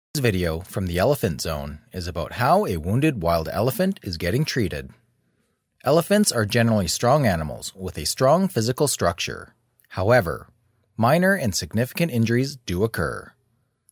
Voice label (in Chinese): 外籍男英 外语 美式英语 纪录片类